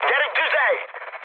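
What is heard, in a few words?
attack position, mood high, Hungarian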